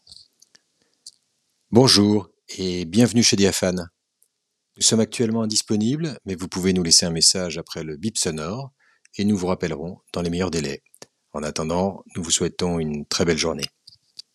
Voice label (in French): Repondeur